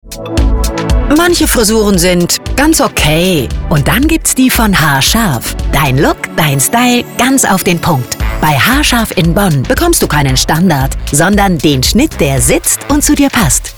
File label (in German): Beispielspot Friseur